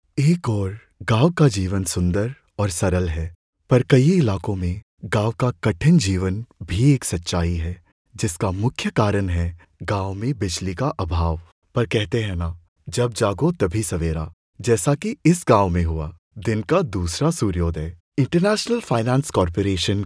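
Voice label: Hindi Documentary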